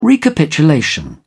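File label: "recapitulation", British English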